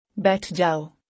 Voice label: Sit down, urdu; Simple Commands

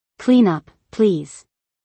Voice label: Clean up, please. English; Time and Routine

